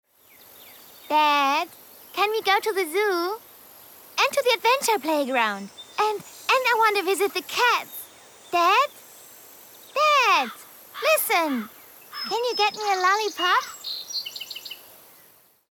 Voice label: English Kid